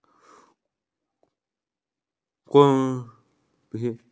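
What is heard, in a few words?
"work", Mandarin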